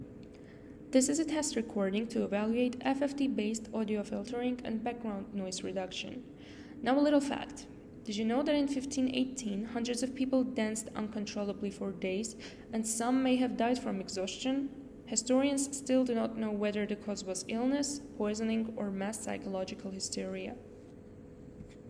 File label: voice notch; audio cleaner